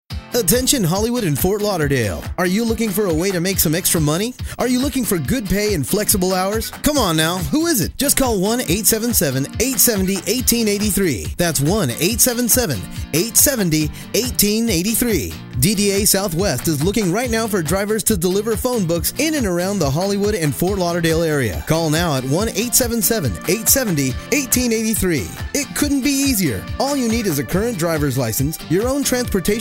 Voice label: Eng; Hollywood